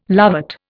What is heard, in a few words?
"lovat", Indian